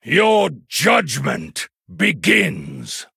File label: Male Human Start; en